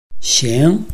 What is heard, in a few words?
"xing2", Chinese